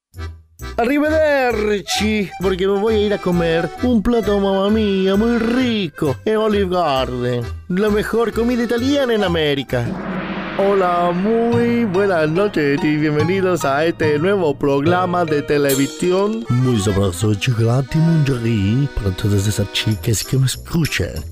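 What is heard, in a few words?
characters Spanish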